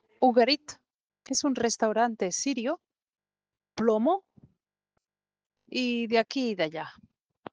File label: Voice message